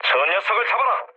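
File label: Korean attack position mood low; speech; War Thunder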